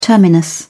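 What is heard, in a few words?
"terminus", British English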